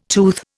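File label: "toothache", Indian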